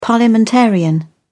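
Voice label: "parliamentarian", British English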